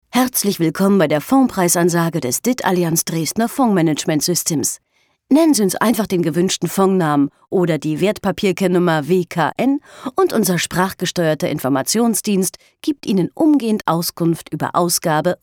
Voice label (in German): deutsch frauen telefon